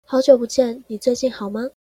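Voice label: "hao jiu bu jian ni zuijin hao ma", Chinese pronunciation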